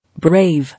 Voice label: "Brave", English